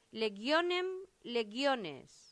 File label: "legionem legiones", latin; santillana